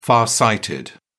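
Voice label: "far sighted", British English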